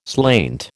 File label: "slainte", American